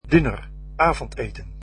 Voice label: "dinner", dutch

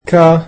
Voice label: "your", egyptian

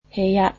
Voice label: "room", japanese

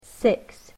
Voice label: "six", English

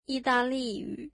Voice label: Italian, mandarin

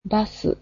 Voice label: "bus", japanese

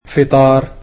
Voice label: "breakfast", egyptian

